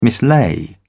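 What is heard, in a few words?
"mislay", British English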